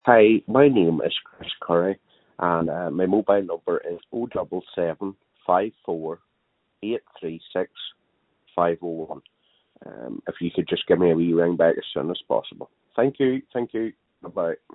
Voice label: call recording